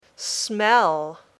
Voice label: "smell", English

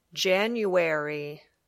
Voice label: "January", English

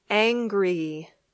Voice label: "angry", English

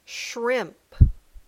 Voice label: "shrimp", English

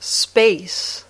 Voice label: "space", English

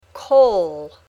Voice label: "coal", English

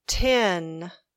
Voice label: "tin", English

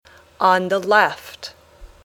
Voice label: "on the left", English

